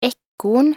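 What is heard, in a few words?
"ekorn", Norwegian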